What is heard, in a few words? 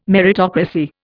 "meritocracy", Indian